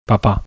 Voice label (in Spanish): "papa", bizkaia masculino; spansk; fonetica